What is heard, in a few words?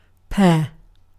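"pear", British English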